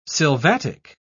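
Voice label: "sylvatic", British English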